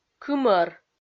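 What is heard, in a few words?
"кымӧр", Komi-Zyrian, female; kpv audio dict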